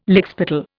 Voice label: "lickspittle", Indian